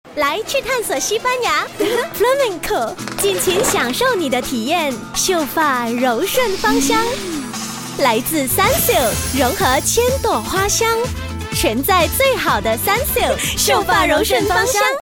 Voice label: TVC; Flamenco